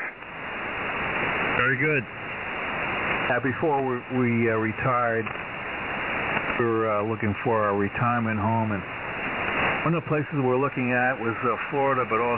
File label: websdr recording 7165.0kHz; describes destruction in Asheville, NC